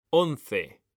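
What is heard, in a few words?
"once", Spanish, male; mekanta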